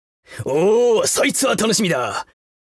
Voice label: Vo Male Oosoitsuwatanoshimida; gekiban